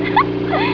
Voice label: monk squeal